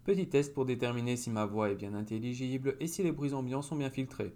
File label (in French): Blue Snowball Classic Enregistrement Cardoide Attenue